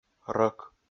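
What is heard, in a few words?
"рӧк", Komi, male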